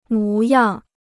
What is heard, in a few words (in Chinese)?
"模样", Chinese